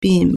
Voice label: "bin4", Chinese